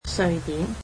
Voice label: "Sweden", Cantonese

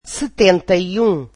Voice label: portuguese seventy one

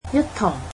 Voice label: "with", Cantonese

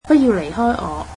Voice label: cantonese dont leave me

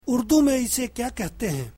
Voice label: urdu What is that called in Urdu

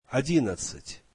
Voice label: russian eleven

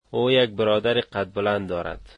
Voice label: he has a tall brother, Dari

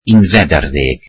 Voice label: welsh eleventh

